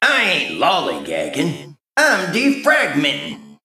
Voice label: Male Mech Idle